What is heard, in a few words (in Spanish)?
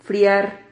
"friar", Silabas